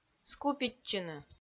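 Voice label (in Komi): "скупитчыны", Komi, female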